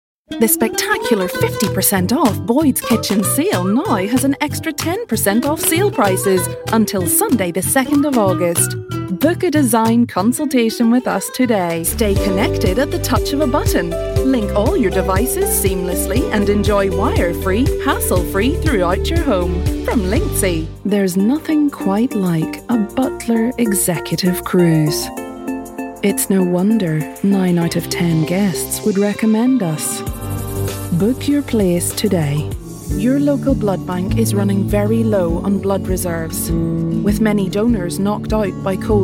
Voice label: northern irish voice, commercial